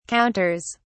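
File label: "counters", British English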